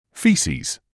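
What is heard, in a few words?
"faeces", British English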